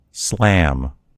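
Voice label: "slam", American English; gcompris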